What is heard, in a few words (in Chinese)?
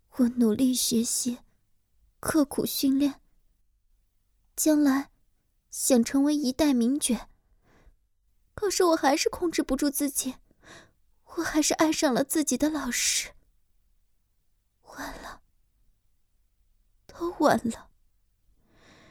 少御 幽怨